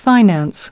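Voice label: "Finance", American English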